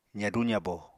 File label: "zadu zabo", Apatani